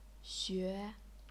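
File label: "xue", chinese pinyin, second tone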